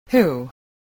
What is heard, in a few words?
"who", spelling